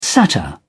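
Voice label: "satyr", English, British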